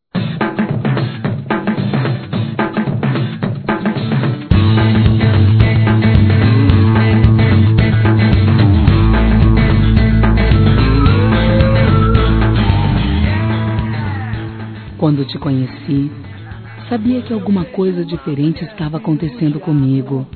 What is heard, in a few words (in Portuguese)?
ROMANTICA, Telemensagens Românticas fem